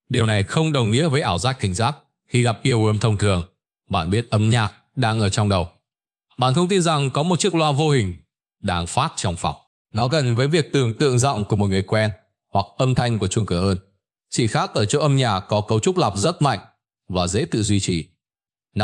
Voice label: narration; Vi Sao Mot Bai Hat Co The Mac Ket Trong Dau Ban Ca Ngay; StickerMan